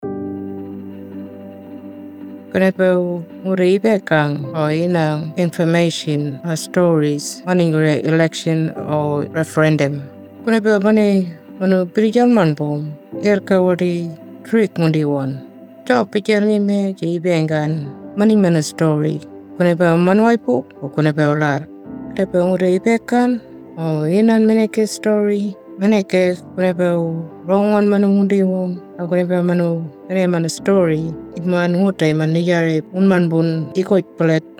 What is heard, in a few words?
Kunwinjku, Radio mix; indigenous; AEC Misinfo